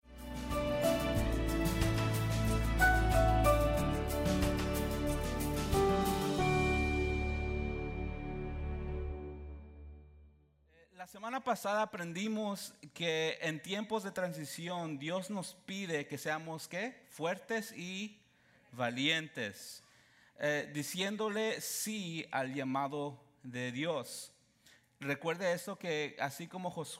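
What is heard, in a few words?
SERMON SPAN, Spanish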